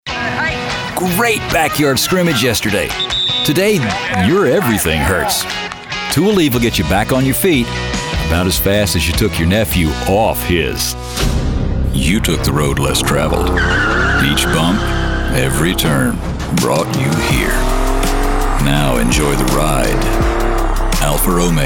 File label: Commercial